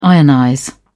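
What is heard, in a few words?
"ionize", English, British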